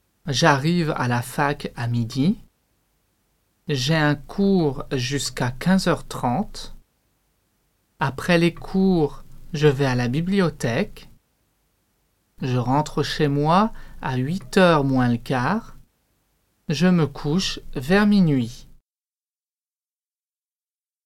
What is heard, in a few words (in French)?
Dialogue la vie quotidienne